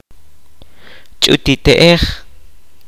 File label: "mother's younger sister", kaqchikel